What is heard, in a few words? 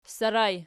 ssar in, Archi